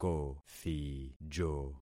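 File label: "kothijo", concatenated syllables; Affixing experiment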